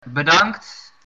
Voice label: thanks, dutch